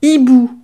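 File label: "hibou", French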